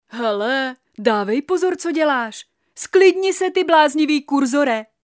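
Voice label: female Czech drama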